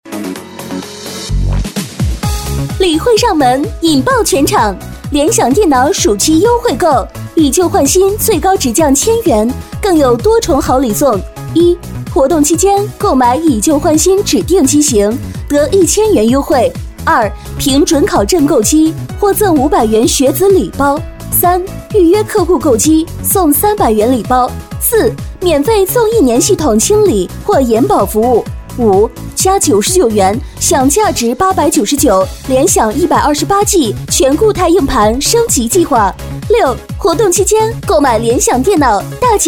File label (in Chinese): 女 广告 激情时尚, 国语女声配音; 联想电脑